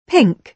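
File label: "pink", British English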